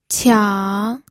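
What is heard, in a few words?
"qiang", Mandarin Chinese, third tone; pinyin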